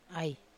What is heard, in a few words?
"ai", Wamesa